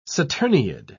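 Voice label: "saturniid", English, British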